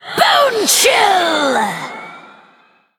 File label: Female Elemental Attack